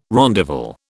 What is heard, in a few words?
"rondavel", British